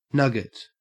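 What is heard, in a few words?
"nugget", Australian English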